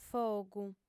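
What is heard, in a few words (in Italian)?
"fogu", lingua sarda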